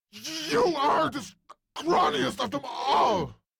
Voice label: Death Squad Taunt; Vem Tabook; Warframe; en